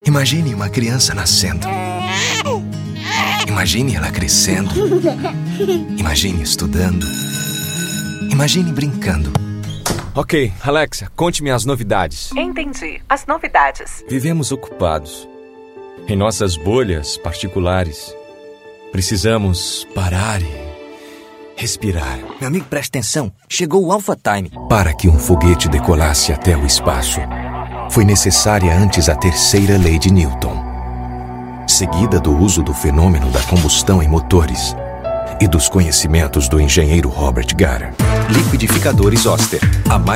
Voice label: Portuguese Brazilian commercial

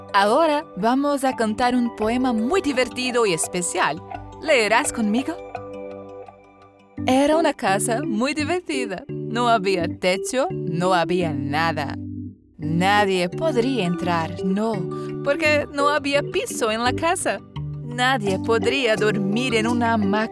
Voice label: spanish audiobooks

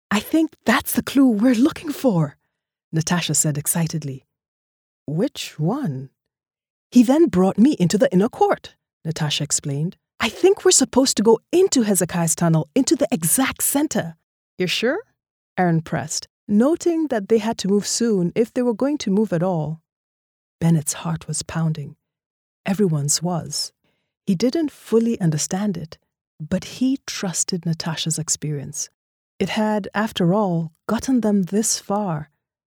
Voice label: english african audiobooks

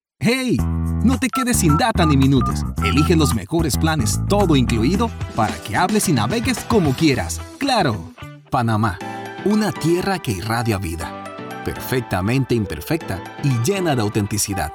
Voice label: spanish latin american commercial

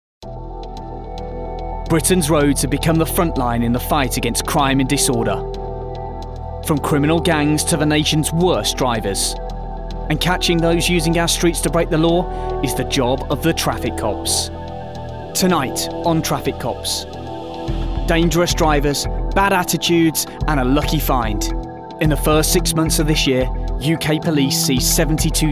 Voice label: english british documentaries

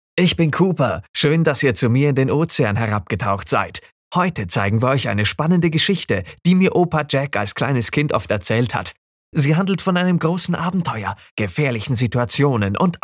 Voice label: german animation

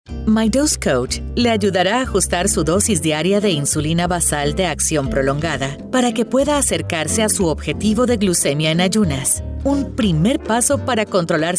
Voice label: Spanish Latin American narration

